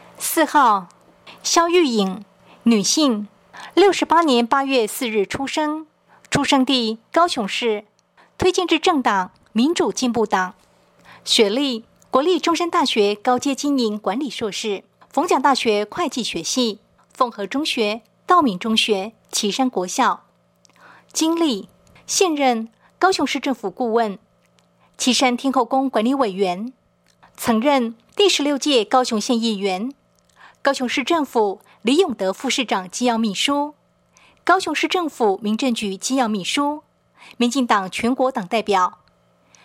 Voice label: 國語, 有聲選舉公報; 第一選區

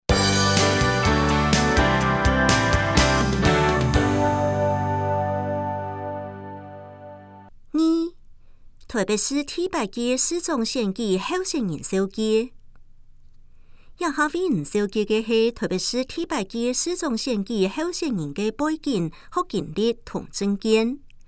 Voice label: 客語; 臺北市; 有聲公報; 市長; 臺北市第8屆市長選舉候選人介紹

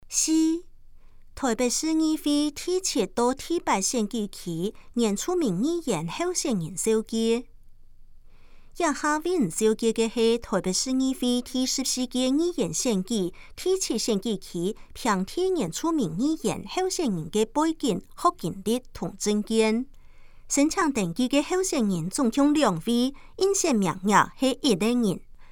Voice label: 客語; 臺北市; 有聲公報; 市議員; 臺北市議會第14屆議員第7選舉區 (平地原住民)候選人介紹

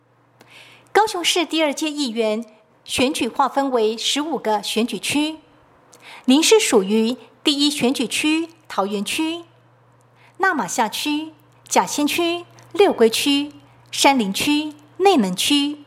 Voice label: 國語; 第一選區

